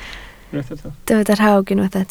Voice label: "rhawg", Welsh